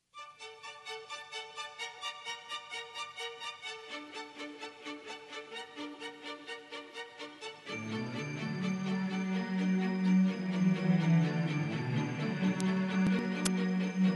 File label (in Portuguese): Aniv. de Comadre M